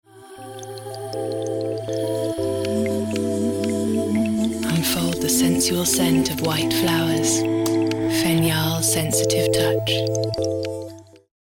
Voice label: werbespot; fenjal

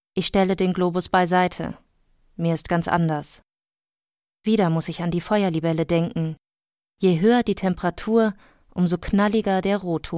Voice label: Erzählung; Feuerlibelle

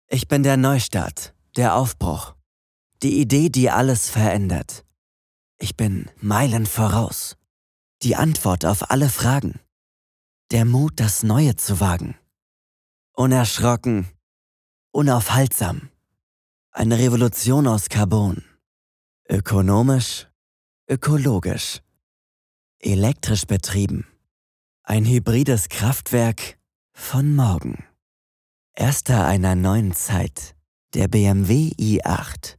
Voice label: Werbung, Commercial (Werbung), German, man; Off

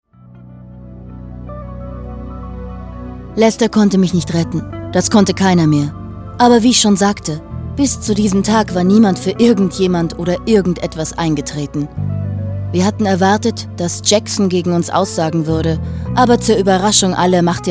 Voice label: synchron; LOFT VOICES

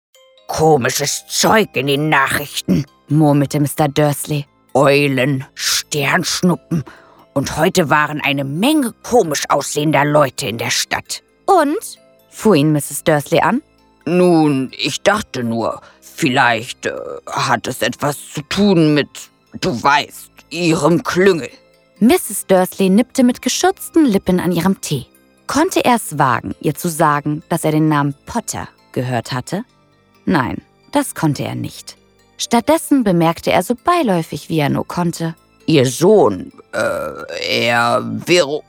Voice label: Hoerbuch; Harry Potter

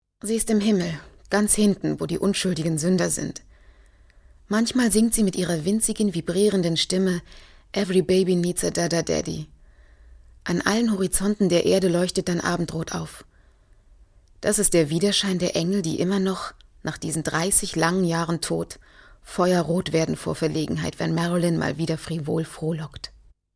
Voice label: erzaehlung

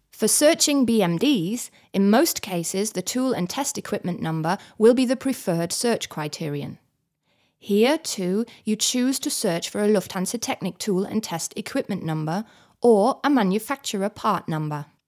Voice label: uk englisch

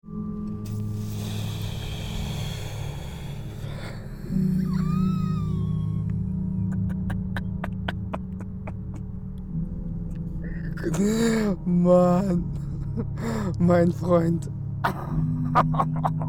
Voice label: junger kiffer

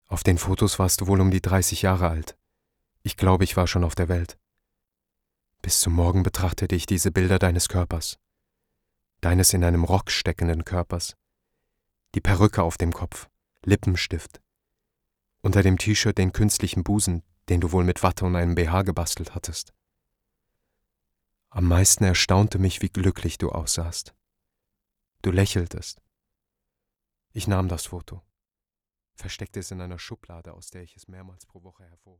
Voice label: Hoerbuch; E. Louis; Wer hat meinen Vater umgebracht

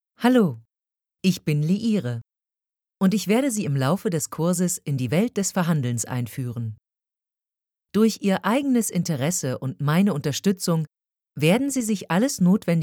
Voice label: E-Learning Leiere; LOFT VOICES

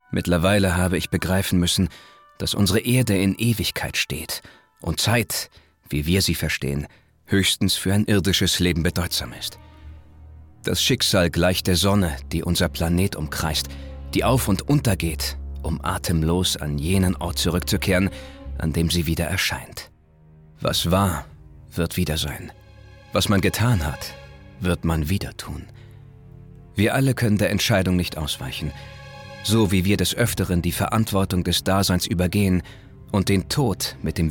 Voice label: Hoerspiel Erzaehler; LOFT VOICES

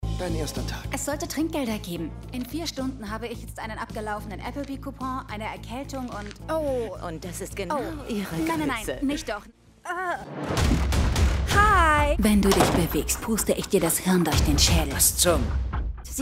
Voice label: Synchron Demo

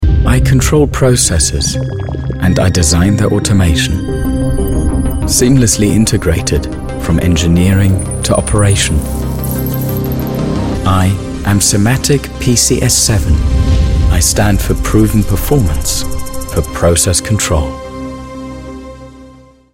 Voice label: ENGLISCH; Siemens PCS7 Software

